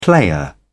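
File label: "player", British English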